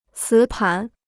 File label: "磁盘", Chinese